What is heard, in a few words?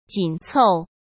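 "紧凑", Mandarin Chinese; chinese-flashcards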